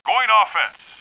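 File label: male oh no; Starsiege; Tribes Demo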